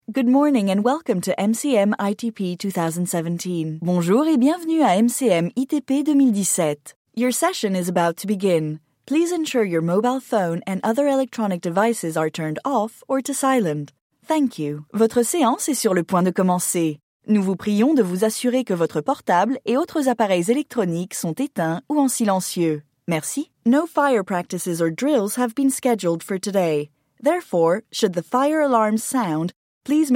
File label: Bilingual announcements